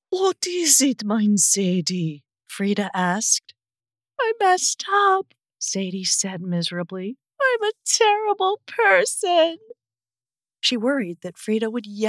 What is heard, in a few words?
Family Saga, Yiddish accent, Grandmother consoles granddaughter